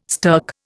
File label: "stirk", Indian